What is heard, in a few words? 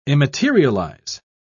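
"immaterialize", British English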